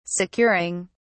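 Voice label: "securing", British English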